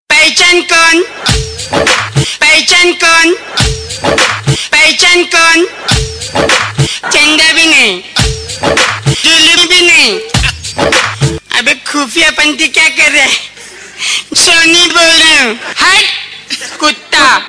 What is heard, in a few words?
pehchaan kaun, Hindi Funny; Ringtones